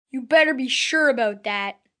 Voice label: Better Be Sure, 1 boy, 11 yrs; Talking

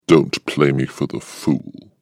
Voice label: Evil Voice "Dont Play Me"; recorded voice

